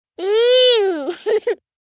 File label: "Ewww", Reaction, 1 boy, 4 yrs.; Talking